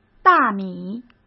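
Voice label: "大米", Mandarin Chinese; hsk; chinese-flashcards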